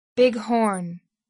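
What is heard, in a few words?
"bighorn", English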